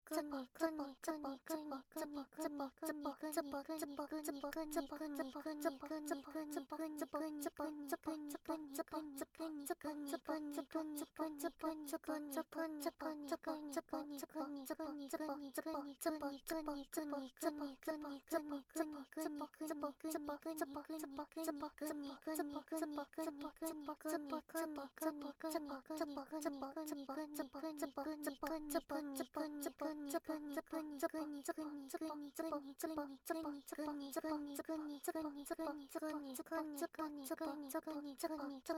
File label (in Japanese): つぽつぽぐにぐにボイス, 汎用エロボイス; おまけ音声（汎用指示ボイス・汎用エロボイス）